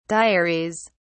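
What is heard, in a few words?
"diaries", British English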